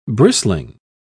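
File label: "bristling", British English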